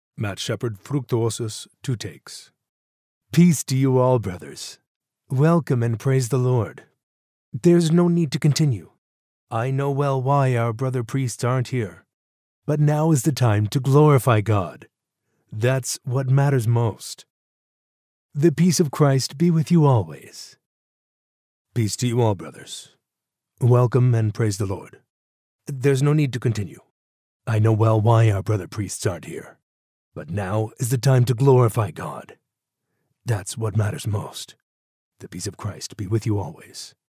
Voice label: locutor ingles estados unidos north american voiceover; American English voice over